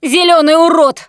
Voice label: warn spitter, russian, Survivor Voice Producer

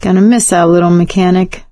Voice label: survivor mourn mechanic, survivor voice; Left 4 Dead 2; producer

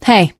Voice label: finale chat, teengirl, voice; Left 4 Dead 2

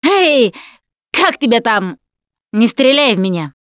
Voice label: friendly fire, russian; Voice; Producer

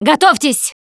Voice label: incoming, russian, Survivor Voice Producer

